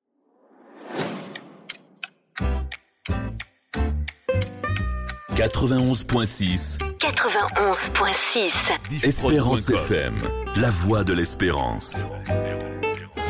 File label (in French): Top H Bonne Soiree, habillage; Esperance FM